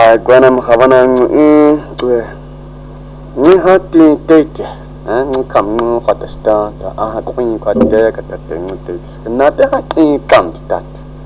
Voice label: Khoisan